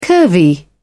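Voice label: "curvy", British English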